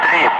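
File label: Russian speech, Ka-50 Wingman; Digits; DCS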